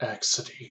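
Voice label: "accidie", US English; pronunciation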